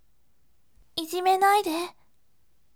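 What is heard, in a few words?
voice, bright; ijimenaide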